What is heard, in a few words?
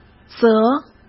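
"则", Mandarin Chinese; chinese-flashcards; hsk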